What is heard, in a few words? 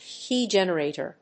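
"Key Generator", English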